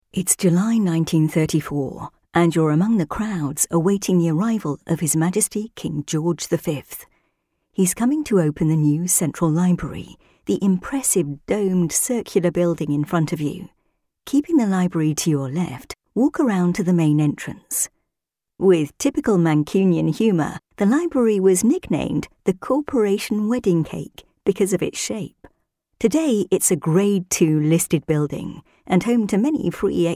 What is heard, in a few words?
english british audio guides; dubladora profissional britânica